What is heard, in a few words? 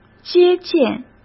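"接见", Mandarin Chinese; hsk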